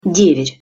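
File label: "dever", Russian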